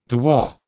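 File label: "dwoh1", Proto-Indo-European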